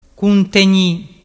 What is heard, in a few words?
"cuntegni", dialettu